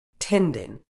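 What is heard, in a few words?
"tendon", British English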